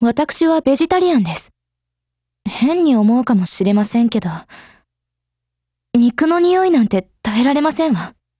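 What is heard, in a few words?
DIALOGUE, Japanese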